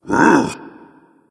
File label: surprise, voice; fenrir